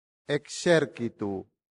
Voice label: "exercitu", Latin; santillana

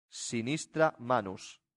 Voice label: "sinistra manus", latin; santillana

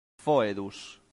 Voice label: "foedus", Latin; santillana

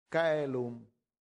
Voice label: "caelum", latin; santillana

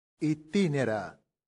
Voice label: "itinera", latin; santillana

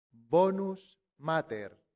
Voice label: "bonus Mater", Latin; santillana

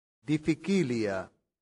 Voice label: "difficilia", latin; santillana

